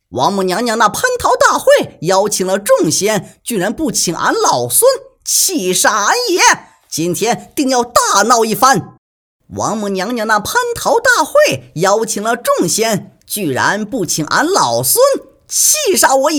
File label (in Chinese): 孙悟空模仿小陕西